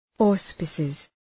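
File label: "auspices", English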